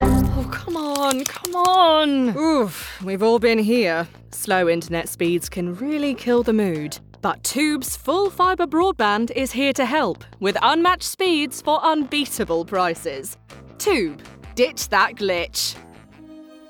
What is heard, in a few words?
Commercial